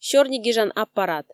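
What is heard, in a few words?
"сёрни гижан аппарат", Komi, female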